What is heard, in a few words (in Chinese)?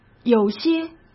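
"有些", Mandarin Chinese; hsk; chinese-flashcards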